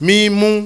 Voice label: "mimu", Yoruba, high high tone; Nine Possible Combinations; Initial High tone combinations

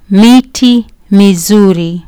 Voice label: "miti mizuri", Kiswahili

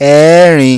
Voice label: "EERIN", Yoruba

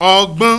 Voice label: "ogbon", Yoruba; NASAL VOWELS; ogbon ibon agbon

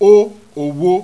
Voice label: "O owo", Yoruba; ALPHABET RHYME IN BITS

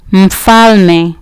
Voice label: "mfalme", Kiswahili

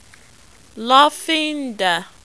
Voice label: "lofinda", Yoruba

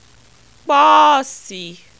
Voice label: "poosi", Yoruba

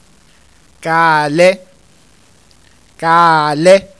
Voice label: "kaale", Yoruba; African Studies Institute, University of Georgia

